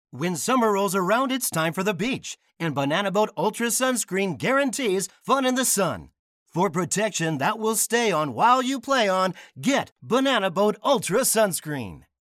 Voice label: foreigner